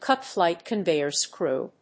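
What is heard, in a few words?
"cut-flight conveyor screw", English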